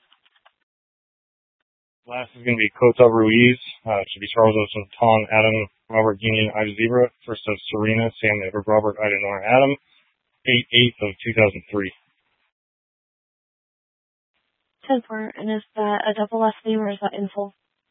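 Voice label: Tempe Police Department, scanner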